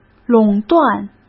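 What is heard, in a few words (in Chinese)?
"垄断", Mandarin Chinese; hsk; chinese flashcards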